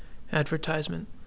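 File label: "advertisement", Canadian English, male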